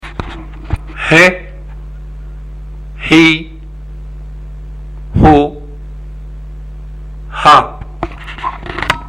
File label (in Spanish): HAUN CON VOCALES, ARABE; ARABE A1; ALFABETO; LETRAS CON VOCALES